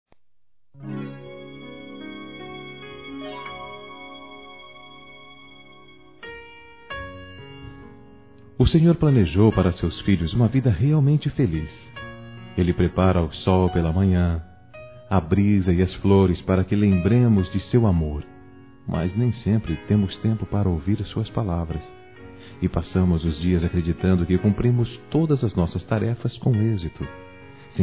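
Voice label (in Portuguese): religiosa, masculino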